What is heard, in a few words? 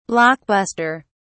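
"block buster", British English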